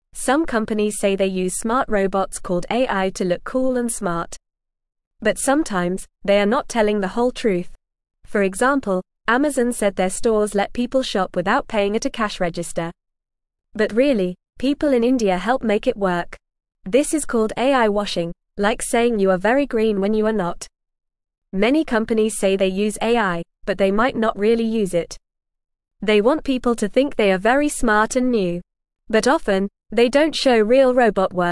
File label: English Newsroom Beginner FAST Reading; Companies Pretend to Use Smart Robots Called AI